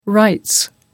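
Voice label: "rights", British English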